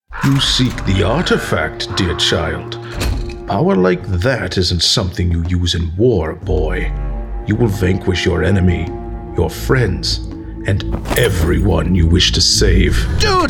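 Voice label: Character Demo, male voice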